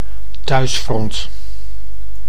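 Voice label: "thuisfront", Dutch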